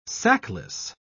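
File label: "sackless", English, British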